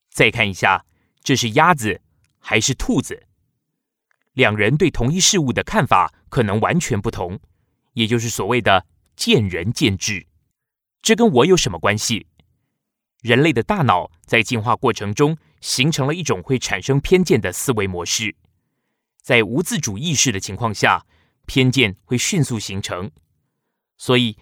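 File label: Traditional Chinese Male E-learning dry sound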